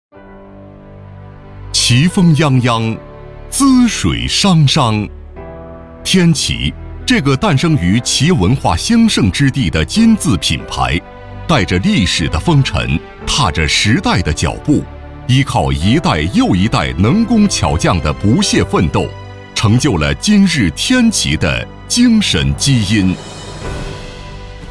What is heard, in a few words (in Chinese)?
男 专题 浑厚有力 企业专题